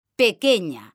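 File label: "pequeña", Spanish, female; mekanta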